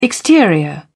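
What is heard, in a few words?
"exterior", British English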